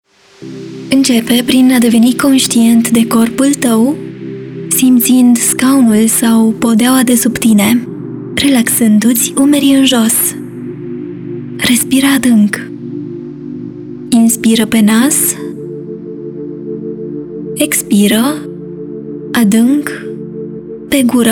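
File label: romanian audio guides, Mujer; Audioguías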